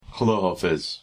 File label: persian xudahafez goodbye